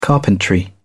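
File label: "carpentry", English, British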